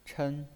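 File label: "chen", Mandarin Chinese pinyin voice, first tone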